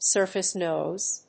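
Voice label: "surface noise", English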